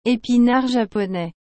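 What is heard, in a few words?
"epinards japonais", French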